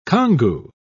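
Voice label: "congou", British English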